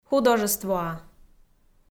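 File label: "художествоа", Komi, female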